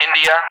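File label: "India", English; Alphabet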